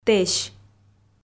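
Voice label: "тэсь", Komi, female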